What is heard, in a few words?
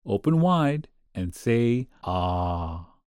Mid Aged Male Open Wide And Say Ahh